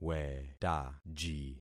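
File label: "wetaji", concatenated syllables; Affixing experiment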